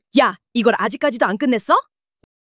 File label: anger; monologue